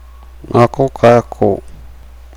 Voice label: "chicken egg", Nukuoro